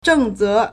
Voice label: "zheng4ze2", Chinese